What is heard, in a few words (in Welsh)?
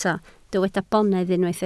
"bonedd", Welsh